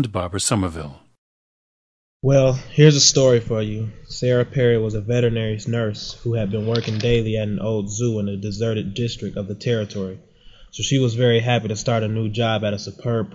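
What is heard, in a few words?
michigan, International Dialects of English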